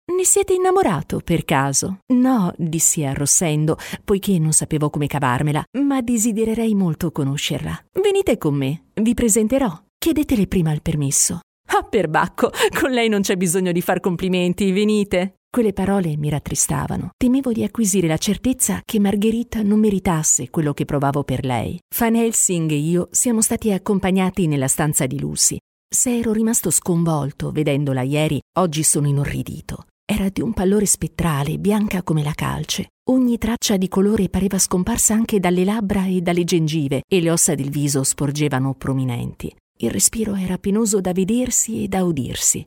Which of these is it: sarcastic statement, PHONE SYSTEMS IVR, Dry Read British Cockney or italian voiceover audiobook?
italian voiceover audiobook